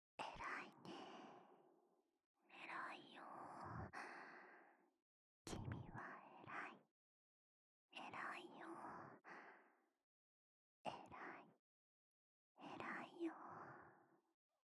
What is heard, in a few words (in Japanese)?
囁き 左耳 君はえらいよ